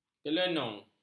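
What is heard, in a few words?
"kelenung", Malay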